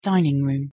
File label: "dining room", English; the home